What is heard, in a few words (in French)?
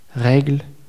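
"regles", French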